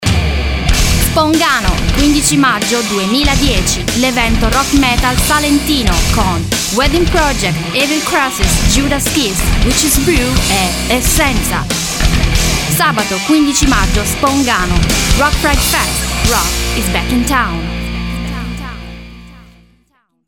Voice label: spot; Pride